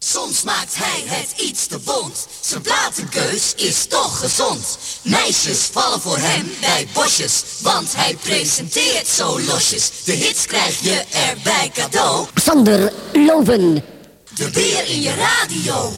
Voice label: Jingle beer in je radio

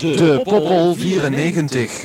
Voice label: Dubbele stem; Pop-Poll 94

